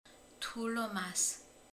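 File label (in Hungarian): "szalonna", Hungarian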